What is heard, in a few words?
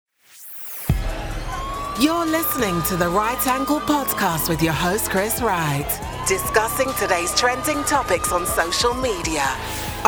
english british podcasts